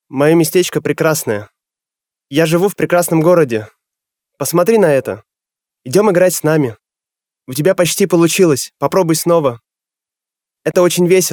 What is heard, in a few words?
Male Russian Toys